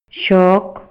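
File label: Maya, male; INALI; MayaYuc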